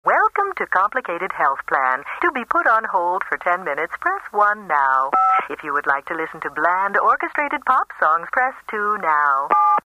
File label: humorous